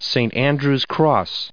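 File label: "andrew's", English, us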